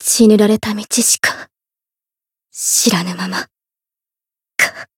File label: retire, jp; suzette